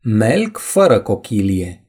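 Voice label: "slug", Romanian; gcompris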